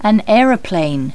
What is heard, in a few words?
"Aeroplane", English